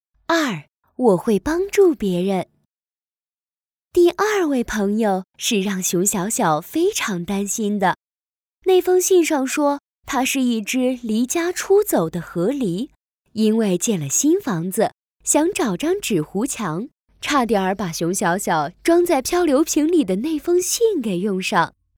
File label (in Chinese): 女 多媒体 培训课件 课件 温柔